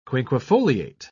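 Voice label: "quinquefoliate", English, uk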